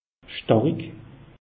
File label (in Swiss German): "starik", alsace; meteo